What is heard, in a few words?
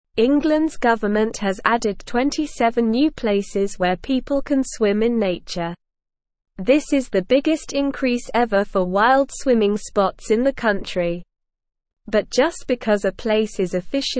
English SLOW Reading; 27 New Places to Swim in Englands Nature